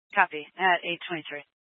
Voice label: Fire Department Dispatch; Grand Junction